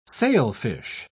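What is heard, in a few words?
"sailfish", British English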